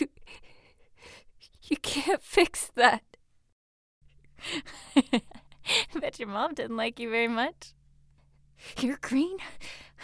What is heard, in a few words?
Recruit Female